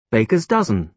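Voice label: "baker's dozen", British English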